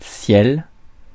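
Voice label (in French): "ciel", French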